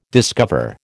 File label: "discover", American